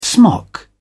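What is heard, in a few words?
"smock", British English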